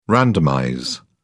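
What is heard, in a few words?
"randomize", British English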